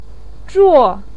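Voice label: "zhuo", Mandarin Chinese syllable, fourth tone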